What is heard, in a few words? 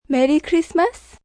Merry Xmas F, female; holiday; VoiceBox